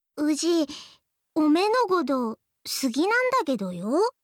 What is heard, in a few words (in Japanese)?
好きです 山形; imas; 春香